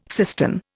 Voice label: "cistern", Indian